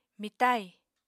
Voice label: "mitai", Apatani